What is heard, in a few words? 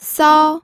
"sao1", pinyin